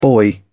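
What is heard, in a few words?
"boy", English, British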